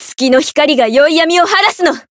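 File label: variable chant, Japanese; hisumena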